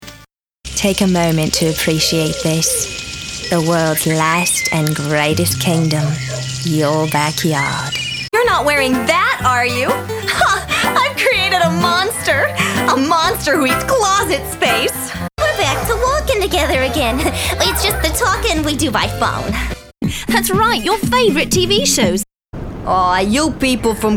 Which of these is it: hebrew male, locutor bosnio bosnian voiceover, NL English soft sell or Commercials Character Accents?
Commercials Character Accents